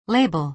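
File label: "label", American English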